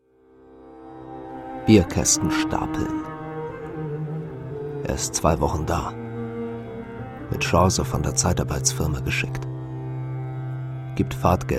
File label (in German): Hoerspiel